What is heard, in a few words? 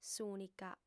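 "s'ùnica", female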